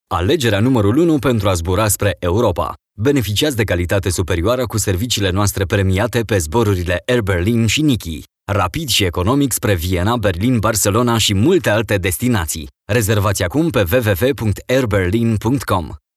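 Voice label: Romanian commercial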